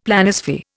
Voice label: "Planisphere", Indian; Dictionary